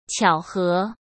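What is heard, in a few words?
"巧合", Mandarin Chinese; chinese-flashcards; hsk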